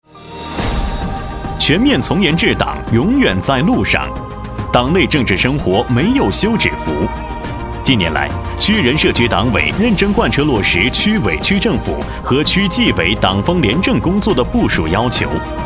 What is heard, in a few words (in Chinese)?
汇报片 党建类